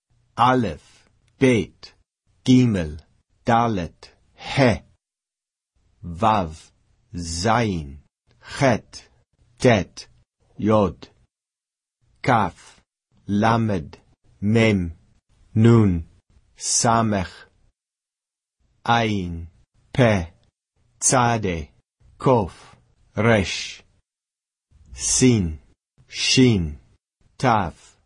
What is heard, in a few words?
alef bet all, Hebrew; The entire alphabet; Beginning Biblical Hebrew